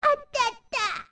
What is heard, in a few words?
Old Lady Robbed; Zelda